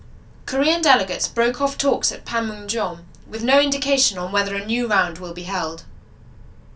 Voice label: noisy REVERB simu far room; CleanMel; single-channel Mel-spectrogram denoising and dereverb